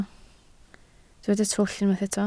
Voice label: "twll", Welsh